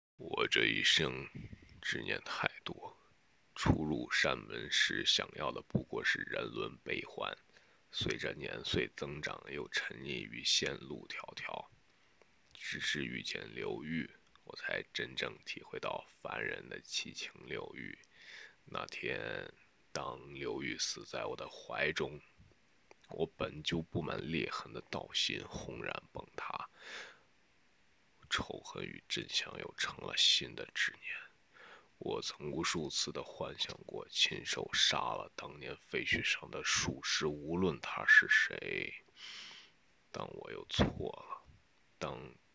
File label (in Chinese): 古风